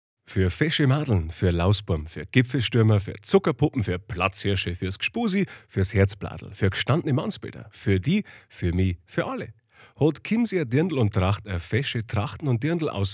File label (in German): bairisch; chiemseer dirndlundtracht